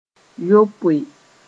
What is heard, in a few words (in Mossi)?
"yopoe", moore; seven; 7